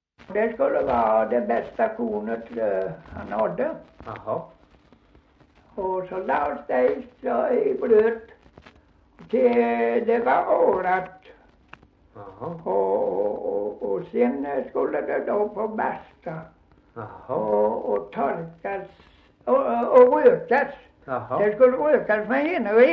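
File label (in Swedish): Gunnarp Halland, dialekter svenska sagor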